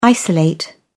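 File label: "isolate", British English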